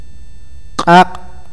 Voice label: fuego, awakateko

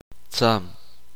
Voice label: uspanteko nariz

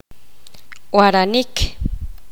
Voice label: poqomam escuchar

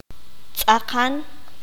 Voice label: "completo", qanjobal

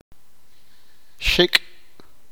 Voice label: "ala", sipakapense

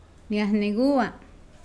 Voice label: Nawat palabras oler; pipil

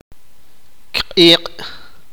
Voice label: "viento", sipakapense

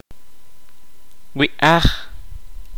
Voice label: "cabello", Kaqchikel

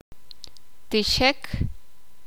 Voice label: "derramar algo", sakapulteko

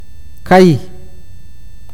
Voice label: pescado, awakateko

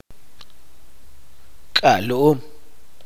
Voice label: "aldea", chuj

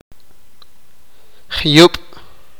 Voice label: "cerro", sipakapense

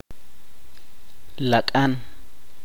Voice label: "cerca", Chuj

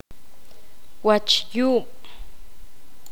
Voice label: poqomam espalda

